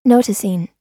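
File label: "noticing", American English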